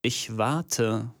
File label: german voice; wyrmsun